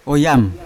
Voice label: "oyam" spear, Yawa